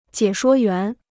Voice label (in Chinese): "解说员", Chinese